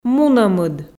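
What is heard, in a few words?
"мунӧмыд", Komi, female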